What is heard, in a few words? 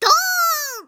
win max, Anime Girls; Windows; Bing Japan; Nana